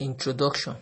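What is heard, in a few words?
"introduction", Nigerian Pidgin, male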